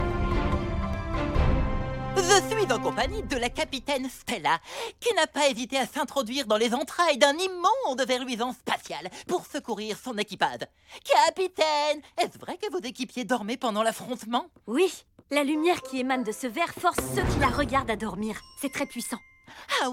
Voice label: presentateur TV, voix-off; Chien de l'espace

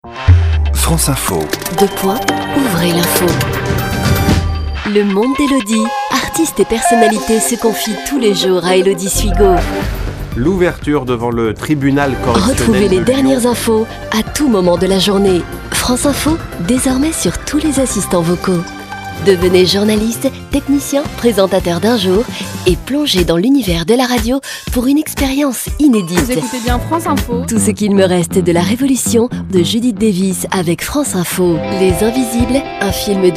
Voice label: habillage posée timbrée; FRANCE INFO

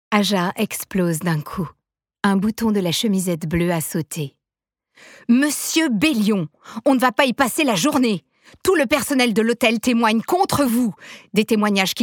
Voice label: LIVRE AUDIO; ne lache pas ma main

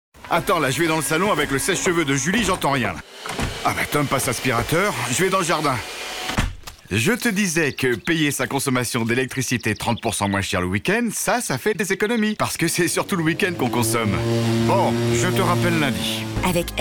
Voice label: Voix radio; Engie

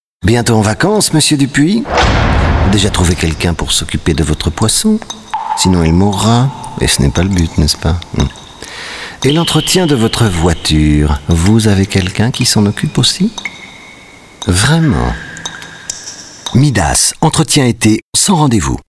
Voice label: Voix droite; Midas

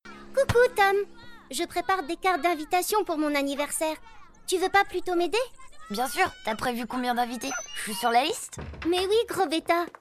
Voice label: Voix fille et narration; Kim; Histoires a compter Kim et Tom; Lunii

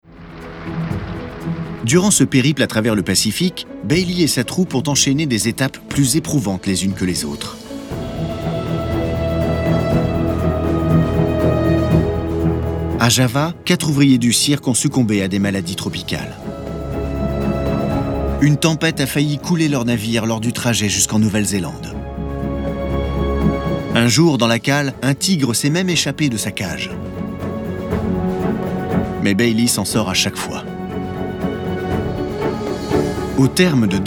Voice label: voix narrative historique

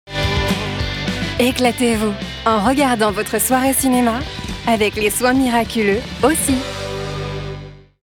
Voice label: doux BILLBOARD; Aussie haircare

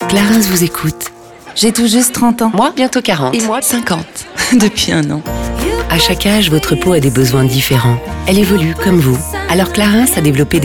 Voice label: Radio; Clarins

